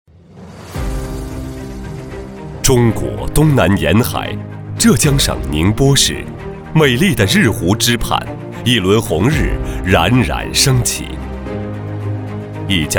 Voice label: 男 专题 国际招标

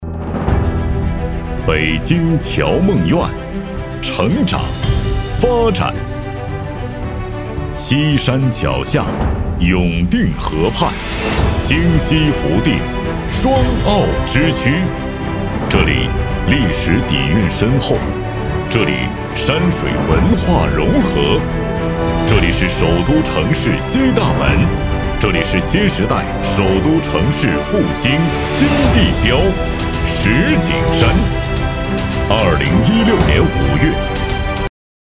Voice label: 男, 专题 城市形象片; 石景山

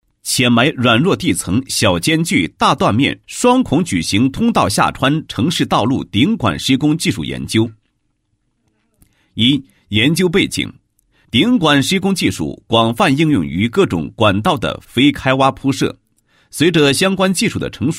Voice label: 男27-解说专题; 城市道路顶管施工技术研究解说

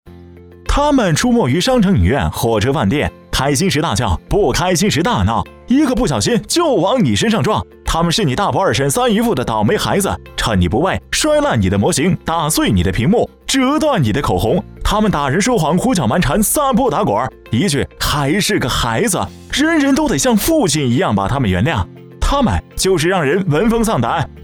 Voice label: 男 飞碟说

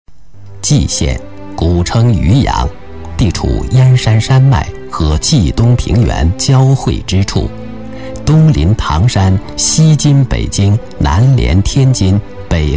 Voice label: 男 城市宣传片, 国语男声配音; 蓟县城市宣传片

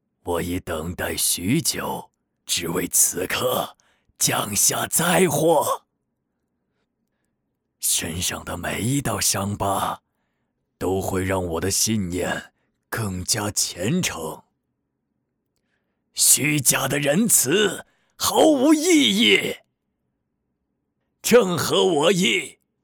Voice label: 男 自走棋手游; 灾祸之兆

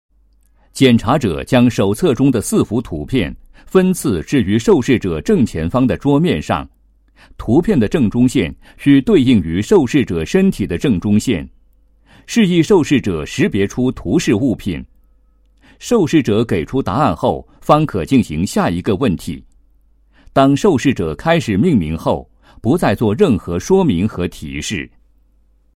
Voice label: 男, 其他专题; 10种测试

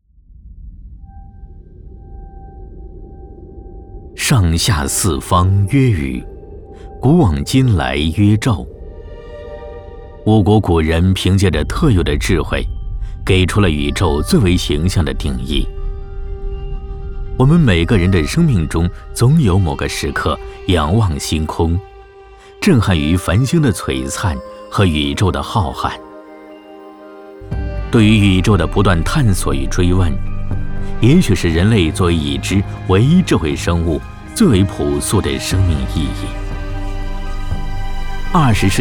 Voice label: 男 纪录片 宇宙

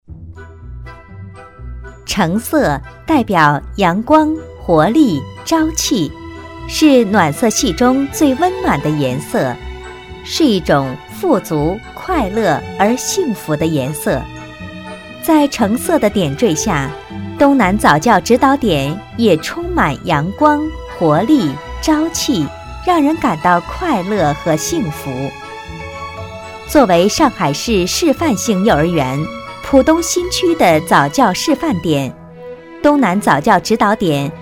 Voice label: 女 专题 早教指导点; 东南早教指导点

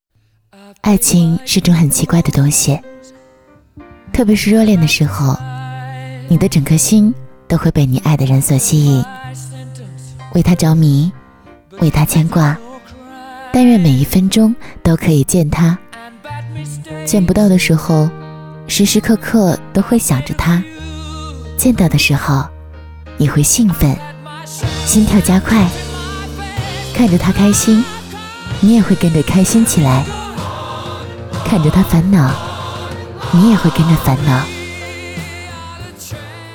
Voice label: 女-独白-婚礼-爱情

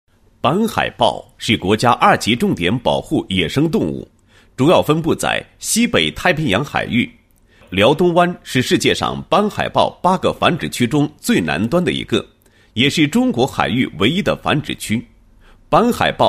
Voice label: 男 解说词; 斑海豹保护区视频

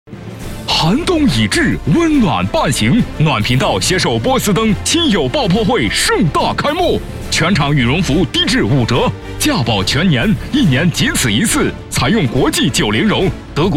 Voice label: 男, 促销, 电视购物; 波司登羽绒服

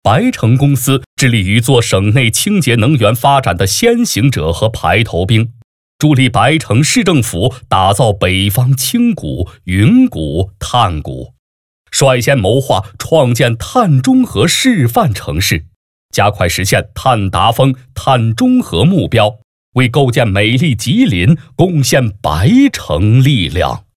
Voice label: 男 企业汇报; 白城节能

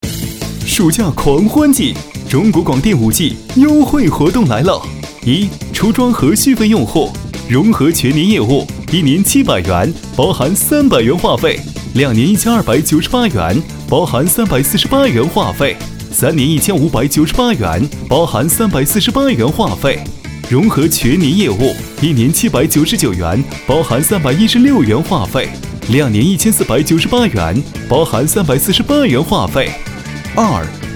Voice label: 男 广告 青春声线

